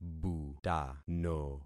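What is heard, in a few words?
"butano", concatenated syllables; Affixing experiment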